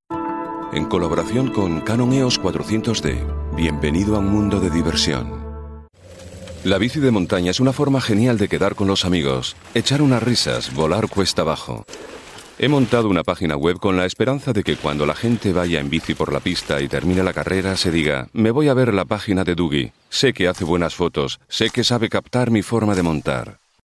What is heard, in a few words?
narration